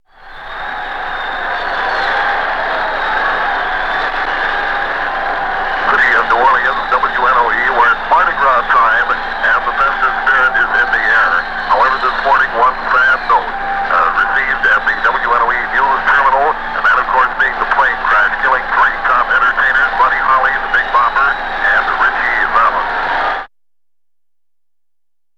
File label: Plane Crash Newscast; WNOE